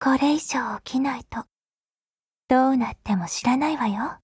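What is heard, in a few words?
Japanese; Groza; Dreamscape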